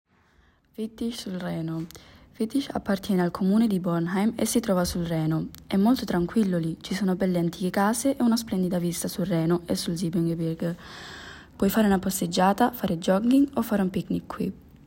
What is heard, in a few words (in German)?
italienisch; Widdig am Rhein